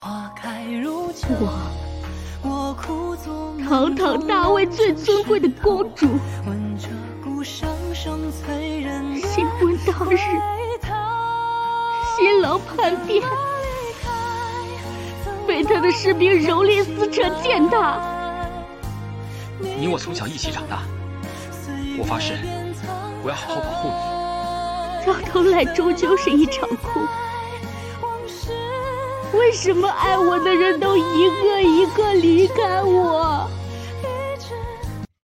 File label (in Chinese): 影视; 元淳